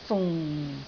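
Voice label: "fung4", Cantonese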